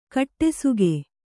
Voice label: "ಕಡುಸೊಗ", Kannada